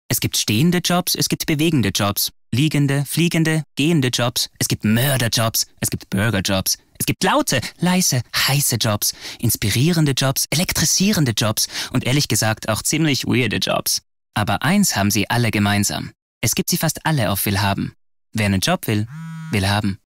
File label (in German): RADIO; WILLHABEN